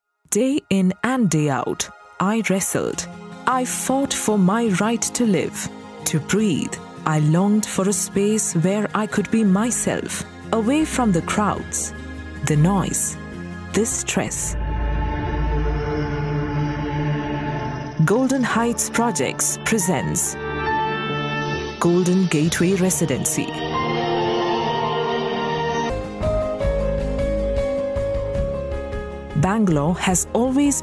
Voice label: Corporate